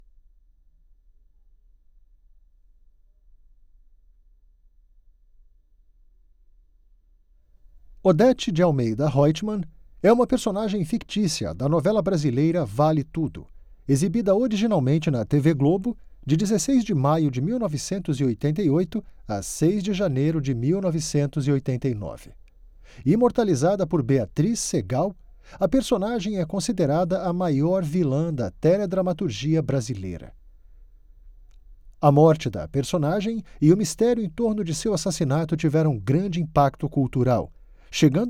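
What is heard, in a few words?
Brazilian Portuguese, commercial natural; Male; Booth - Outspoken - PTBR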